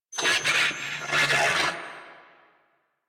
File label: Player Spotted Grineer Male; New War; Narmer